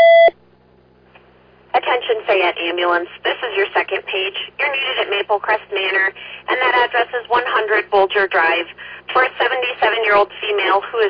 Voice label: Ambulance, dispatch